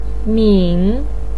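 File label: "min", Mandarin pinyin, third tone; 闽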